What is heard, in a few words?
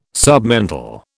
"submental", British